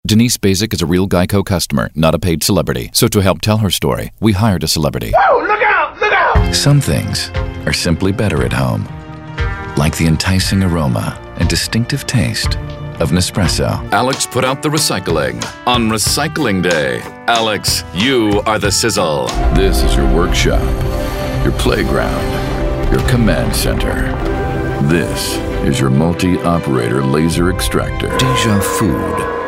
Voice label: Commercial